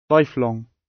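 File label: "lifelong", British; Life, leisure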